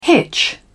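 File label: "hitch", British English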